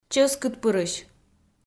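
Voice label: "чӧскыдпырысь", Komi, female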